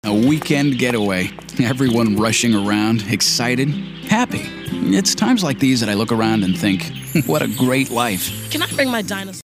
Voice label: real youthful